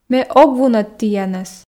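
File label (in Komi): ме ог вунӧд тіянӧс, Komi, female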